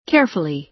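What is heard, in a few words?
"carefully", American English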